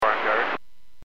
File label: Aviation 121.5 Emergency